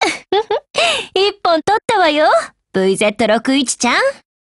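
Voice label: WIN Japanese, Voice; GF Alarm; EVO3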